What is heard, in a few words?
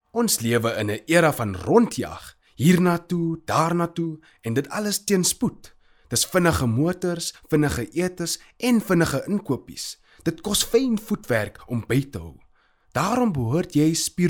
Afrikaans Corporate